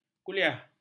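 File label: "kuliah", Malay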